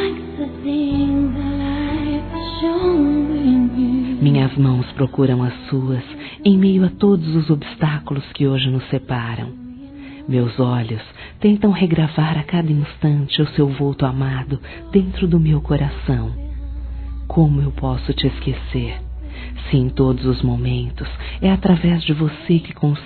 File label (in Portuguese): Telemensagens Amor Fem; Telemensagens 2017